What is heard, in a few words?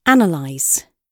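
"analyse", British English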